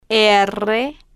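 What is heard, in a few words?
"rr", spanish, female, slow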